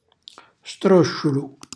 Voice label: "strosciulu", Calabrese; cucina calabrese

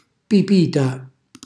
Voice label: "pipita", Calabrese; cucina calabrese; Glossario

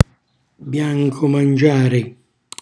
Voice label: "biancomangiare", Calabrese

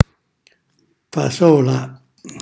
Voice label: "fasola", Calabrese; fagioli

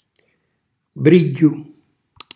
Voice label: "brigghiu", Calabrese

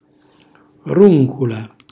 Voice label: "runcula", Calabrese; roncola; cucina calabrese

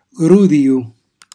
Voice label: "grudijo", Calabrese; cucinacalabrese; glossario

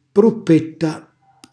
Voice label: "pruppetta", Calabrese; cucinacalabrese; glossario

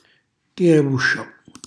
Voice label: "tirabuscio", Calabrese; dal francese; cucina calabrese

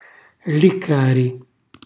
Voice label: "lliccari", Calabrese; cucina calabrese; Glossario

